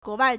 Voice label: "guo2wai4", Chinese

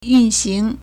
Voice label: "yun4xing2", Chinese

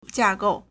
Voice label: "jia4 gou4", Chinese

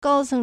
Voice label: "gao1 ceng2", Chinese

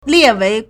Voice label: "lie4wei2", Chinese

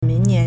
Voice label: "ming2nian2", Chinese

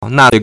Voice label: "na4cui4", Chinese

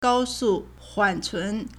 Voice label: "gao1 su4 huan3 cun2", Chinese

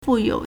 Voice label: "fu4 you3", Chinese

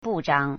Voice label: "bu4zhang3", Chinese

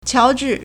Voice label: "qiao2 zhi4", Chinese

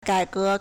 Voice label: "gai3 ge2", Chinese

